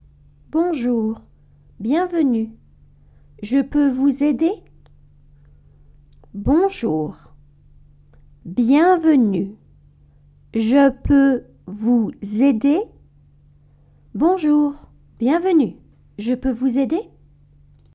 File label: French